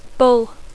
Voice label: "bull", English

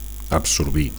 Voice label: "absorbir", Catalan; to absorb; to engross

